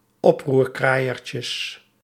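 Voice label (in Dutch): "oproerkraaiertjes", Dutch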